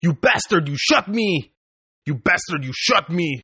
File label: Toughguy Shot English, Tough Hispanic Male; Tough Hispanic Male Saying "You Bastard You Shot Me!" In English-2 Takes; Attitude Sound Effects